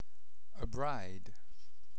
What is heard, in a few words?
A BRIDE